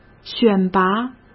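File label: "选拔", Mandarin Chinese; chinese-flashcards; hsk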